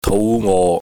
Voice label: "tou5ngo6", Cantonese